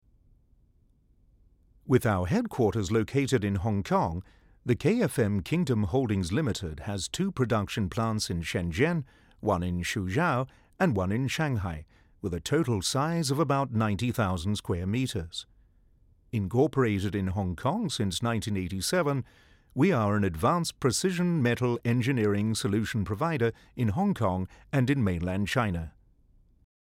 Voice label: english male